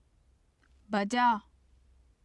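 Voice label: "baja", Urdu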